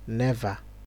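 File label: "never", Nigerian Pidgin, male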